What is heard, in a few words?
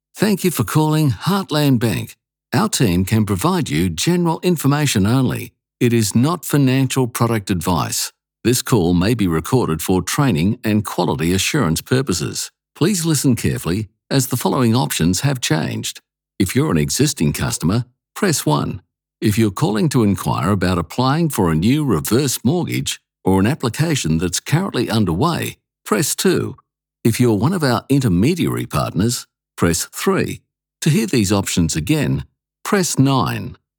phone welcome greeting; Heartland